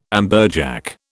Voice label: "amberjack", British

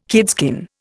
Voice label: "kidskin", Indian

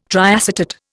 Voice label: "triacetate", Indian

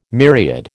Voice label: "myriad", American

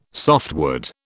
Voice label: "softwood", British

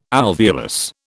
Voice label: "alveolus", British

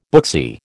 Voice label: "booksy", American

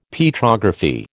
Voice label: "Petrography", American

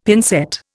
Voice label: "pincette", Indian; Dictionary

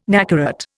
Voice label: "nacarat", Indian

